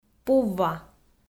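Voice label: "пувва", Komi, female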